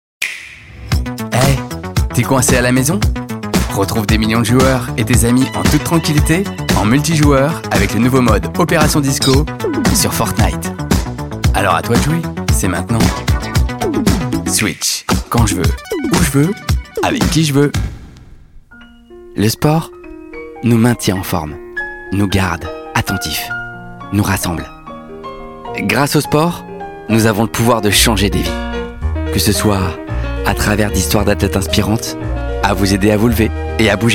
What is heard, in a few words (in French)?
voix off